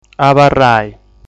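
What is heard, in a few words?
"Abarrai", Sardinian word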